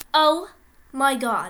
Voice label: Girl Saying "Oh My God"